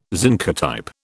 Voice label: "zincotype", British